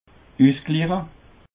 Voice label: "ussgliche", Alsace

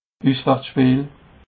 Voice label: "uswartsspeel", alsace

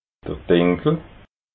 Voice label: "dinkel", alsace

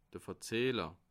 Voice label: "verzahler", alsace

